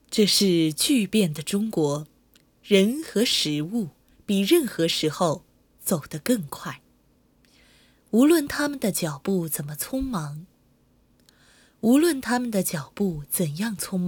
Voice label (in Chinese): 纪录片试音